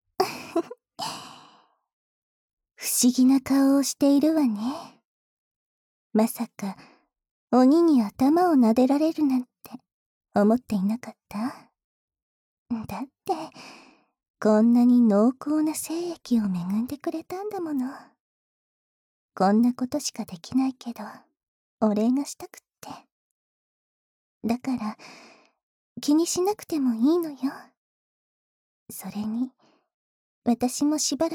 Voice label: Sleeping Together, Pillow Talk